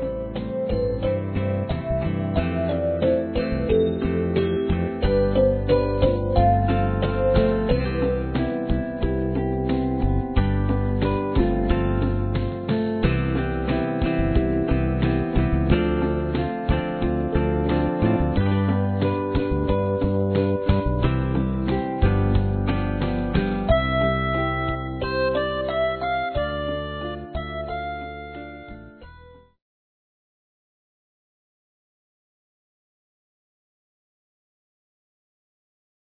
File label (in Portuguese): Bodas de Prata Feminino, Telemensagens; Bodas e Casamento